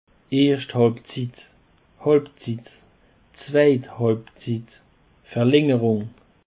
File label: "erschthalbzitt", Alsace